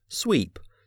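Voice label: "sweep", English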